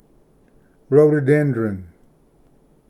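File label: "rhododendron", English; ᏛᎳᏑᎩ ᏣᏁᏆ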